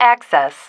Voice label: "access", female